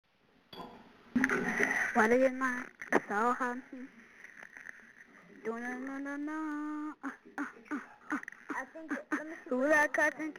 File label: little kid crank phonecall